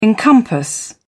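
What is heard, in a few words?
"encompass", British English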